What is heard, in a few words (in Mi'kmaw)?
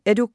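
"etuk", Mi'kmaq; wowkwis aqq kaqaquj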